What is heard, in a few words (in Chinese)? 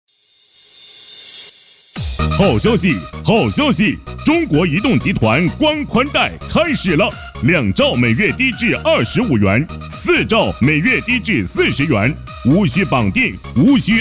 男 手机促销